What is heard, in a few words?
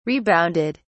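"rebounded", British English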